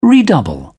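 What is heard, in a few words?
"redouble", English, British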